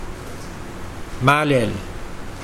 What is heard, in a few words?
Mokilese skull